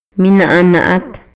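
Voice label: Maya, male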